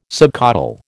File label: "subcaudal", American